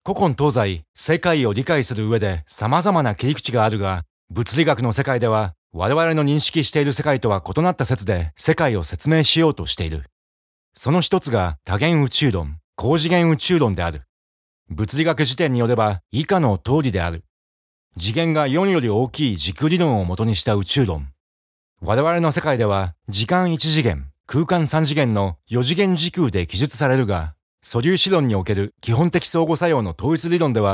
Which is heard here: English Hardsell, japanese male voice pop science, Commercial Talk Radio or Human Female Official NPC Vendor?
japanese male voice pop science